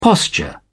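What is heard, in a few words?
"posture", British English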